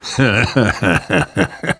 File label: reaction positive, survivor voice; Left 4 Dead 2; left4dead2 dlc3